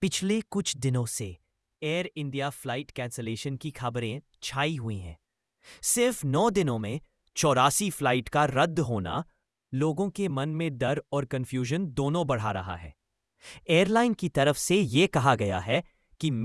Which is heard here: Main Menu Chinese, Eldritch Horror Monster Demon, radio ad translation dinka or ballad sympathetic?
ballad sympathetic